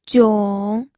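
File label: "jiong3", Mandarin Chinese third tone; pinyin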